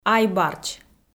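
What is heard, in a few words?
"айбарч", Komi, female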